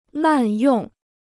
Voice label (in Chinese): "滥用", Chinese